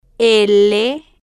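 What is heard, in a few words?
"l", spanish, female, slow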